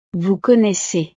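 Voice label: "vous connaissez", French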